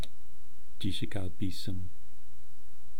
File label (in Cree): "chiishikaaupiisim", eastcree